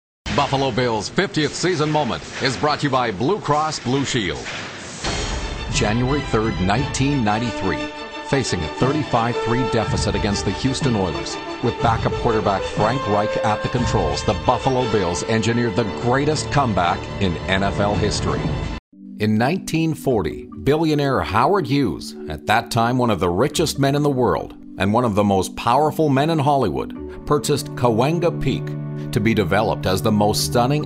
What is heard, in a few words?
Narration Demo